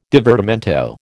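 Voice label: "divertimento", American English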